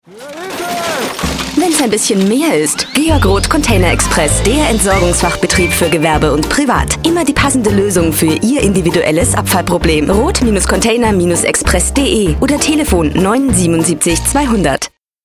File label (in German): Werbung kurz; contain